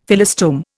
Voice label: "Phyllostome", Indian; Dictionary